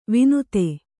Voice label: "ವಿನುತೆ", Kannada

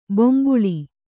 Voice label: "ಬೊಂಬುಳಿ", Kannada; bombuḷi; noun; a small drum.

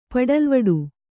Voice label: "ಪಡಲ್ವಡು", Kannada

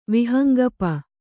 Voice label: "ವಿಹಂಗಪ", Kannada

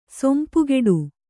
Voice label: "ಸೊಂಪುಗೆಡು", Kannada

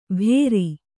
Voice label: "ಭೇರಿ", Kannada

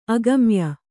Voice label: "ಅಗಮ್ಯ", Kannada; agamya; noun

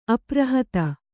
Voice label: "ಅಪ್ರಹತ", Kannada

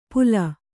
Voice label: "ಪುಲ", Kannada

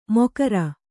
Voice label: "ಮೊಕರ", Kannada

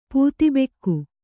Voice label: "ಪೂತಿಬೆಕ್ಕು", Kannada

